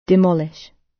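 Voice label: "demolish", British English; Time, history; Thời gian, lịch sử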